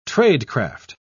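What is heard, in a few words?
"tradecraft", British English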